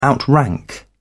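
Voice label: "outrank", British English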